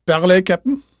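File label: French male, voice; Pirates Of The Caribbean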